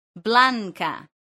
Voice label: "blanka", esperanto